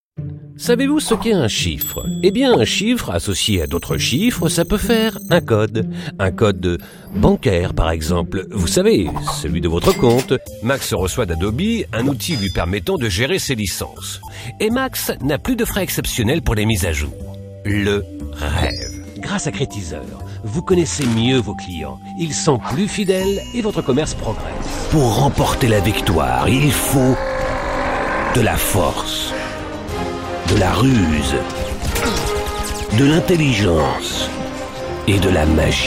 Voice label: french promos

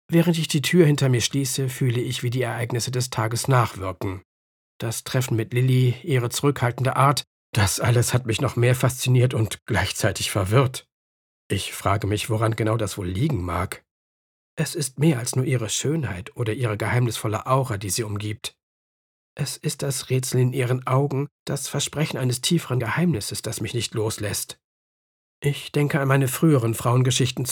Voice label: German audiobooks

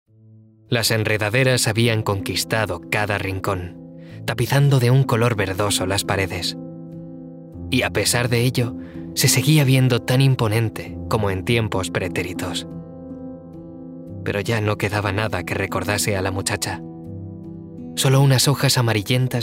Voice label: spanish audiobooks

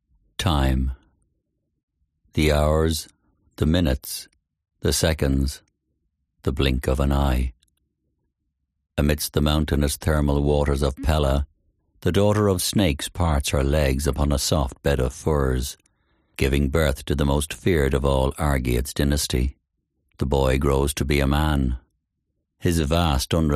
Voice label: english irish audiobooks; Warm, authentic Irish voice with depth, clarity and versatility

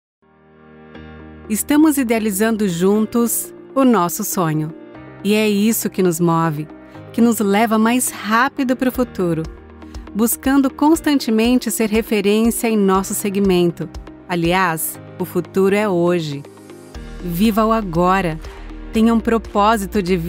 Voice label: portuguese brazilian corporate videos

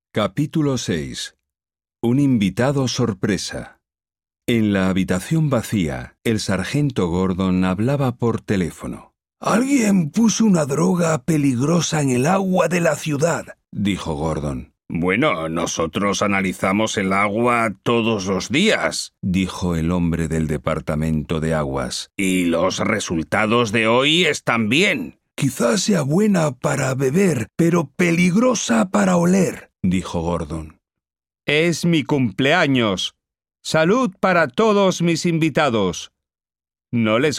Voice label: spanish video games

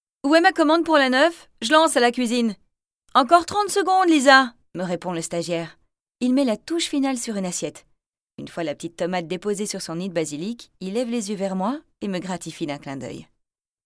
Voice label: french audiobooks

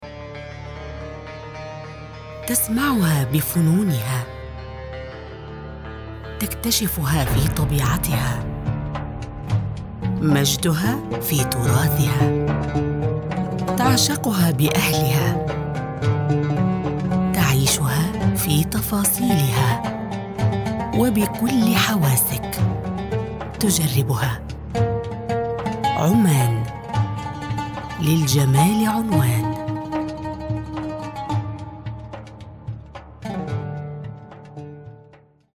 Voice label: arabic promos

